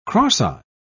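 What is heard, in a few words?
"cross-eye", British English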